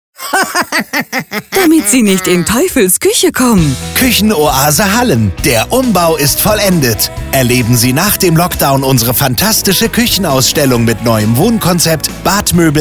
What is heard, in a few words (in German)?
Radiospot